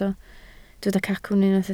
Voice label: "cacwn", Welsh

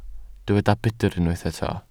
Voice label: "budr", Welsh

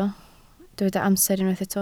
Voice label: "amser", Welsh

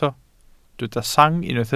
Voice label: "sang", Welsh

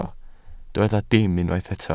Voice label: "dim", Welsh

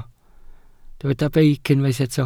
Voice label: "beic", Welsh

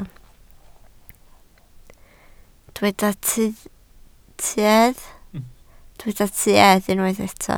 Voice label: "tuedd", Welsh

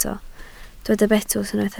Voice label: "betws", Welsh

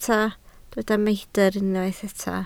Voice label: "meidr", Welsh

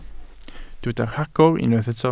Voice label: "rhagor", Welsh

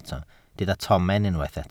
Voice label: "tomen", Welsh

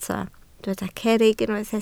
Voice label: "cerrig", Welsh

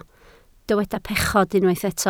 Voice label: "pechod", Welsh

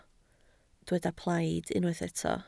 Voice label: "plaid", Welsh

